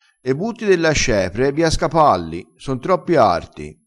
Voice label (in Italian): "scapa", vocabolario dialettale, crespinese